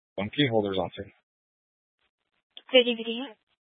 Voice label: Police Dispatch; Police 1 Dispatch